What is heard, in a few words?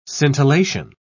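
"scintillation", British English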